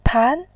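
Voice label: "pan", Mandarin, tone 2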